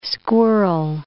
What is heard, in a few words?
"squirrel", english